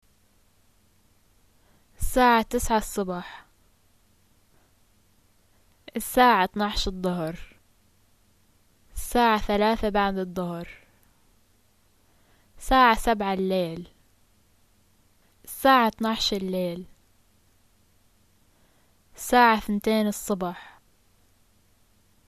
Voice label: time am pm, arabic levantine